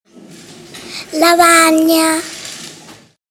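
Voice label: "blackboard", Italian; classroom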